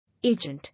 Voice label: "Agent", English

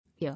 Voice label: "Year", English

